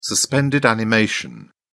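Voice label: "suspended animation", British English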